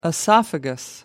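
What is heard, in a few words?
"esophagus", British English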